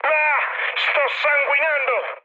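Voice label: Italian damaged pilot mood med, speech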